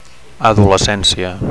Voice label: "adolescencia", Catalan; (f) adolescence